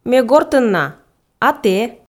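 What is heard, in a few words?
Komi sentences, female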